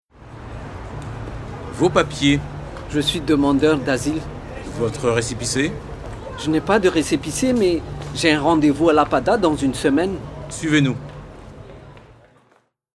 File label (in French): Dialogues, dans la rue; RFIAsile